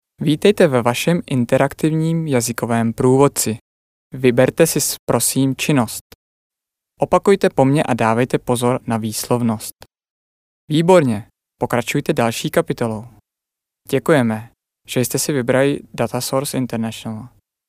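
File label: Czech male corporate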